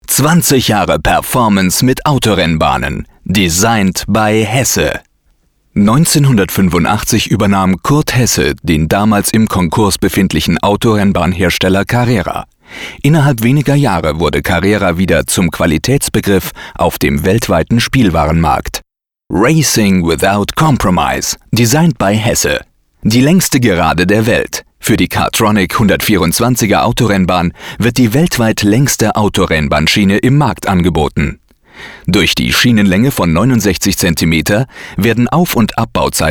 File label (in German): feature, deutsch maenner